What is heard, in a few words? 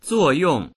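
"zuo4yong4", Mandarin Chinese; 作用; 作用、役割、働き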